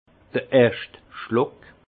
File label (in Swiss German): "erscht schluck", alsace; vin; première gorgée